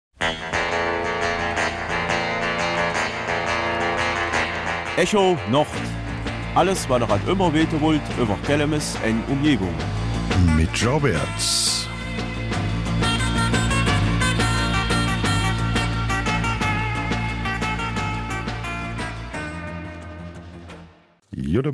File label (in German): Kelmiser Mundart; 21 Juli in Kelmis auf dem Kirchplatz